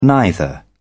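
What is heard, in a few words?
"neither", British English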